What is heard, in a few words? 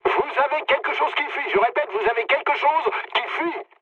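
French tank damaged mood low; War Thunder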